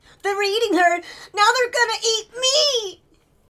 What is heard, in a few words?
Male Reactions